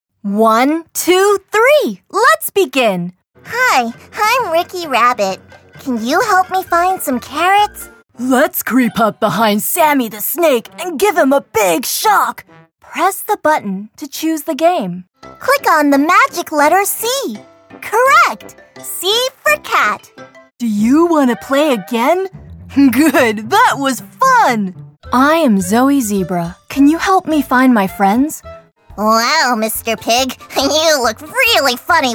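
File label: American, Toys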